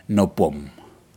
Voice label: fish bigeye Scad, Aneityum